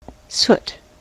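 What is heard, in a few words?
"soot", US English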